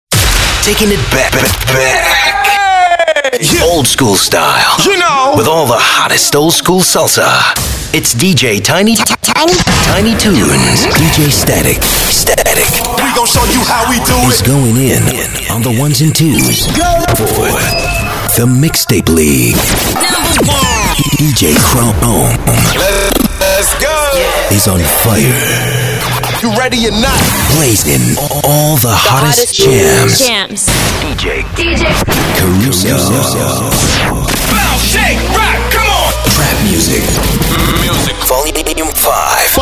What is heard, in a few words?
DJ DROP